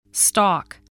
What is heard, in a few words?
"stalk", voice